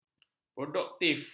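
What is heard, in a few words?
"produktif", Malay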